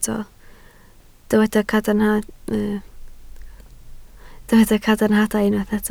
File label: "cadarnhadau", Welsh